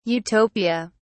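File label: "Utopia", British English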